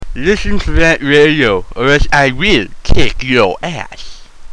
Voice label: Promo; Listen To Rantradio; RantMedia; Station Promos-Listener Submitted